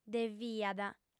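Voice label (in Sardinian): "deviat", female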